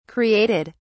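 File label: "created", English, British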